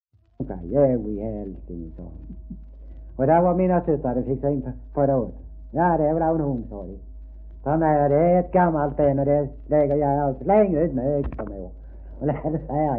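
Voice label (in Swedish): Degeberga Skåne, svenska sagor dialekter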